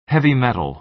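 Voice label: "heavy metal", American English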